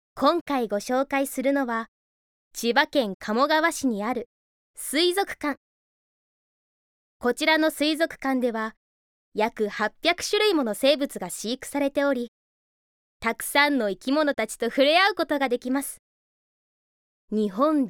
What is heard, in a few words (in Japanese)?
ナレーション